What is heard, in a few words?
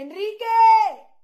loud